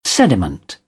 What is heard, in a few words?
"sediment", British; ə - ɪ; English